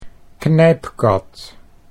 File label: "knijpkat", Dutch